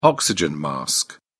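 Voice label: "oxygen mask", British English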